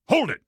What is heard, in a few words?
"hold it" loud, combine soldier; hl2